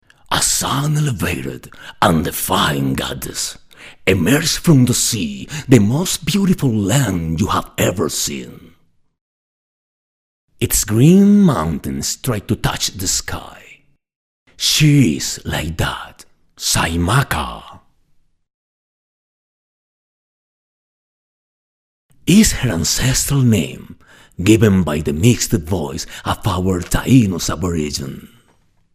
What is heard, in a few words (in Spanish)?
Voz Masculina Inglés